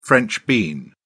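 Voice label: "french bean", British English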